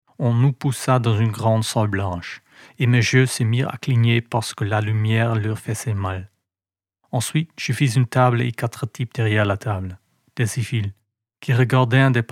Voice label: Language French Book